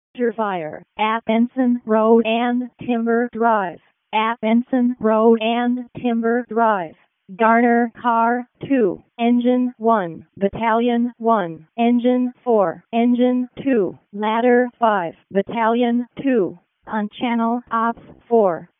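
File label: Fire Department Alert, p25; wake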